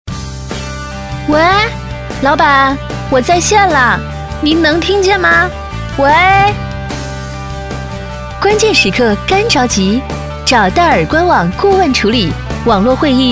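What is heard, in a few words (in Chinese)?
广告 知性, 女, 普通话; 互联网; 戴尔官网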